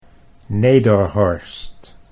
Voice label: "Nederhorst", Dutch